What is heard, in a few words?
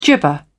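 "gibber", British English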